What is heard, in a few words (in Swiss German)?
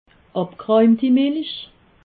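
"abgerahmti milich", Alsatian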